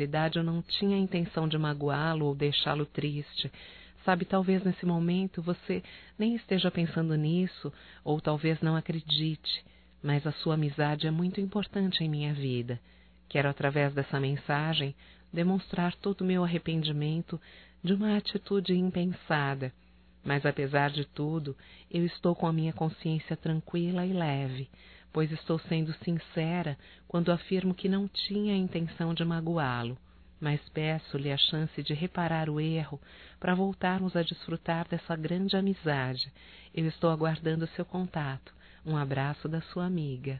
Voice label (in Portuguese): DESCULPAS AMIGO, fem; Telemensagens 2017